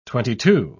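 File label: "twenty-two", English, British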